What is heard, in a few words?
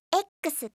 "x", alphabet